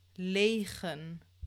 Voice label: "legen", Dutch; klankstabiliteit